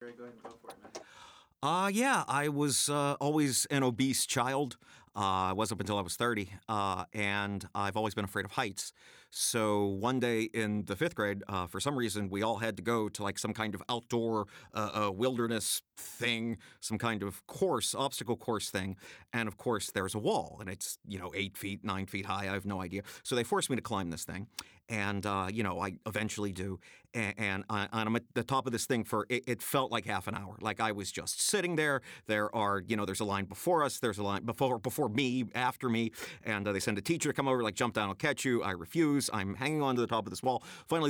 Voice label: Voiceover Fun Story